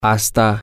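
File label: until you get to, Spanish, male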